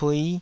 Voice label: "pui5", taiwanese

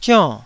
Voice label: "zionn4", Taiwanese

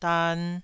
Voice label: "dan2", Taiwanese syllable

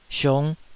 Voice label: "siung5", Taiwanese

